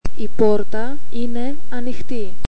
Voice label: "i porta einai anoixti" Greek

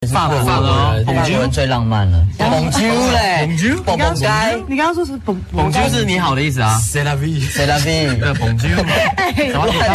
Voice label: Energy french

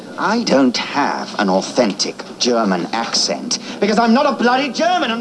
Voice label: accent

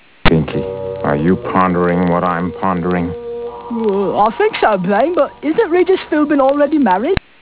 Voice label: pondering; Television

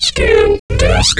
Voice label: "ScanDisk", computer voice

